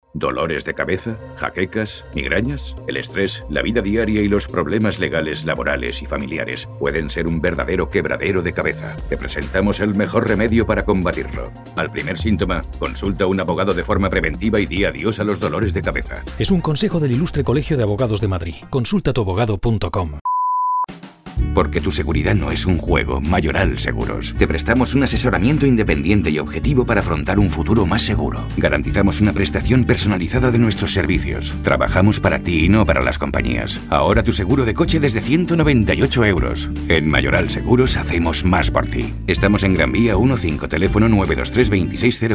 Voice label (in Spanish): comercial